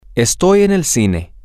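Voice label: I am at the movie theatre, Spanish, male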